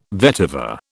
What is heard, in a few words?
"vetiver", British